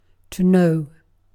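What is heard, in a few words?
"know", British English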